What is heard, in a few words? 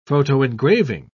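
"photoengraving", British English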